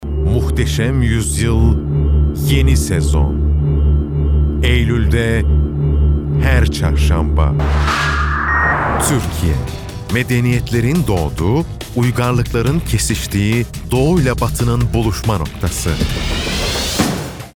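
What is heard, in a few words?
Turkish promo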